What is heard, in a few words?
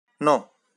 "no", Catalan; Catalan page 10